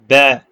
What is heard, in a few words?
short voyels arabic alphabet